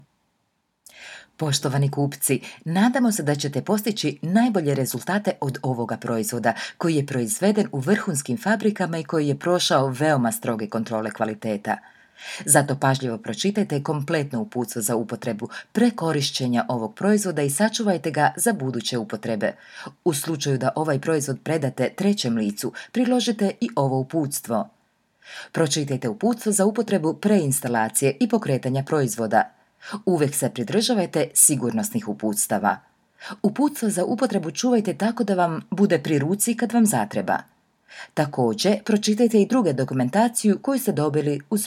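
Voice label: Serbian, Corporate; GoLocalise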